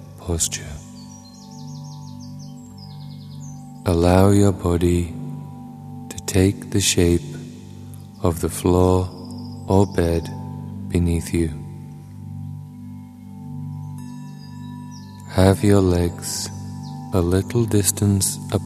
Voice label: Guided Relaxation